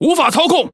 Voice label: Speech Defective Modules Wheel Damaged, ZH TW; World Of Warships